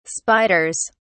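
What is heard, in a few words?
"spiders", British English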